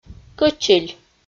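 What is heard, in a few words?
"кӧчиль", Komi, female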